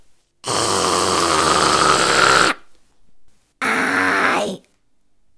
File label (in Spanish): Distorsion